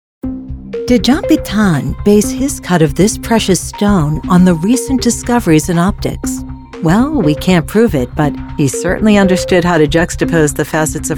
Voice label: TV Narration; AntlandProductions